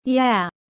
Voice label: fast